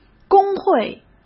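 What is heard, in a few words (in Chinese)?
"工会", Mandarin; chinese-flashcards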